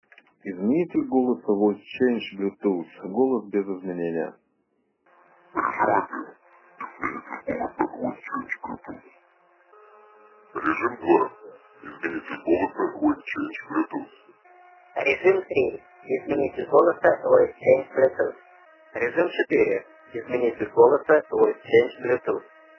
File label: vcbt male